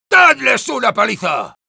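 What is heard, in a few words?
Sniper battle cry, spanish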